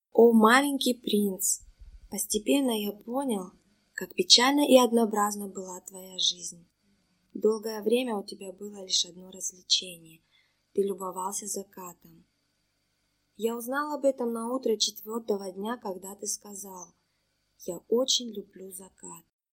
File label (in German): Russisch Vladikavkaz